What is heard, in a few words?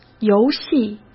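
"游戏", Mandarin Chinese; chinese-flashcards; hsk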